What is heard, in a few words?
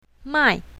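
"mai4", Chinese pinyin, south, female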